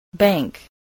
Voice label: "bank", English